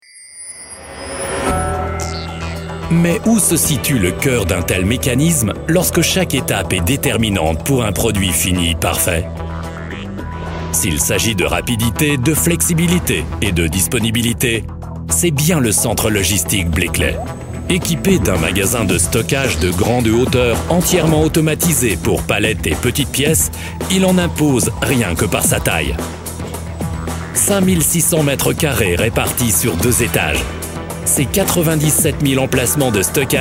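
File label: French, Neutral; Fremdtake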